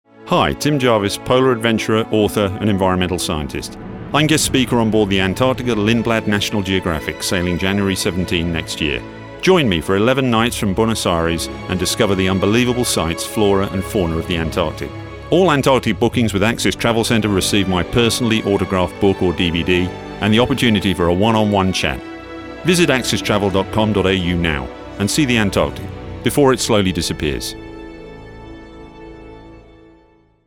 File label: voice over advert, Radio; Radio 5AA; BOOK SAFE and Cruise advert with Axis